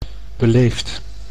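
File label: "beleefd", Dutch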